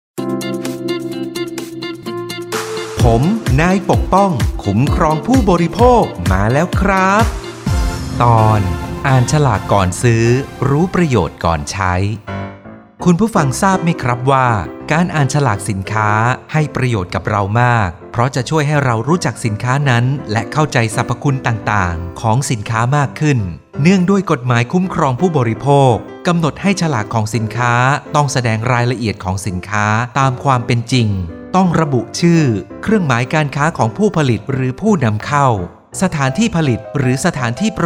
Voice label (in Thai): สปอตวิทยุ สคบ. ภาคกลาง